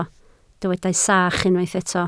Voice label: "sach", Welsh